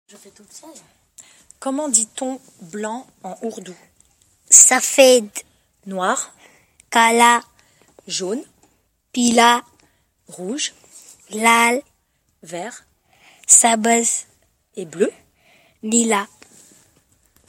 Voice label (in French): dit les couleurs en ourdou